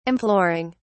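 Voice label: "imploring", British English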